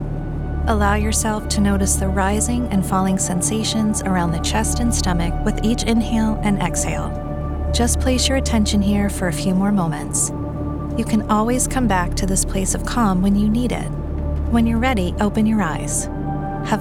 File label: Meditation